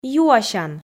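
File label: "юасян", Komi, female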